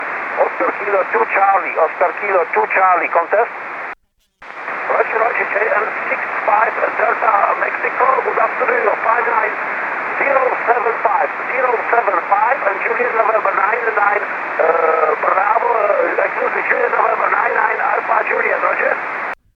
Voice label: 432 SSB